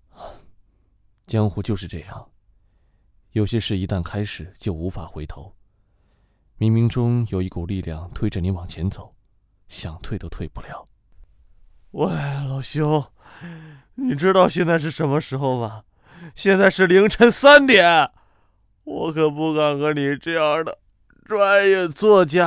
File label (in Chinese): 声线展示 青年